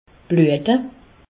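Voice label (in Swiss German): "bluete", Alsatian; medecine; saigner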